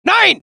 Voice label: German; mohaa

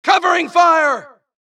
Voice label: supress, dialogue Allies; mohaa; Mission 2

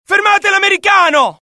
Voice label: alert, dialogue IT; mohaa; attack

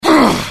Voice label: anger, dialogue IT; mohaa; attack

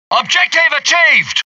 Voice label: british success; dialogue; mohaa

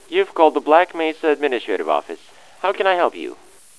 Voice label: hello, phone; xash3d fwgs; induction

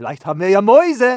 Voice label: curious; mohaa; dialogue

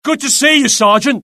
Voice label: alert, UK; mohaa; dialogue

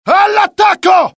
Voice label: alert, dialogue IT; mohaa; attack

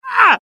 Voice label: death, UK; mohaa; dialogue

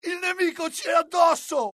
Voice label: alert, dialogue IT; mohaa; attack

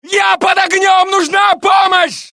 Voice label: Russian; mohaa

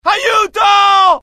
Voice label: fear, IT; dialogue; mohaa

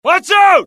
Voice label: alert, dialogue generic US; mohaa

